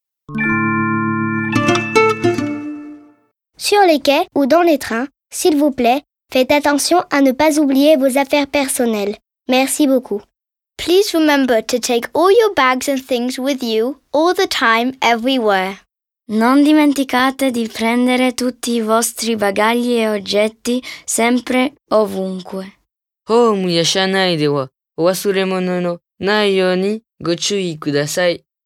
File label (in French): Bagages abandonnés multi jingle